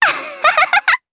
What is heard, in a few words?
Happy, jp; voices; heroes; Rehartna; kingsraid